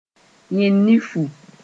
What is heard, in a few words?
"yẽd-nifu", Mooré; anus